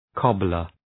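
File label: "cobbler", English; {‘kɒblər}; Ουσιαστικό; εμβαλωμάτης; μπαλωμάτης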